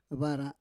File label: "vara", Roon